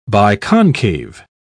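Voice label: "biconcave", British English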